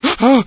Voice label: fear; Source Engine; bshift; rosenberg